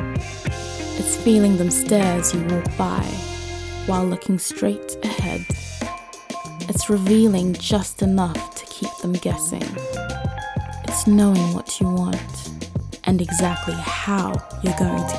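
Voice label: Confidence ad with music, Female Voice Actor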